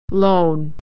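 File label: "lone", American English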